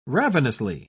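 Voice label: "ravenously", British English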